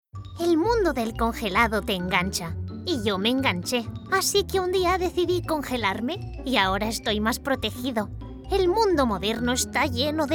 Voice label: female spanish comercial